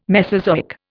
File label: "Mesozoic", Indian